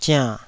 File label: "ziann3", Taiwanese syllable